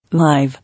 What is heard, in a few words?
"Live", English